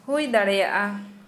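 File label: Santali female possible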